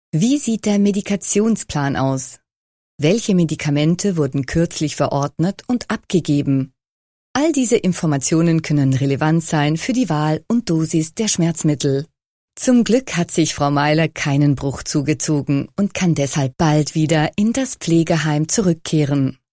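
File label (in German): deutsch elearning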